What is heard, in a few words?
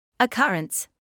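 "occurrence", British English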